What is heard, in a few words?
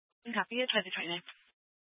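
Police 1 Dispatch, Scanner audio recording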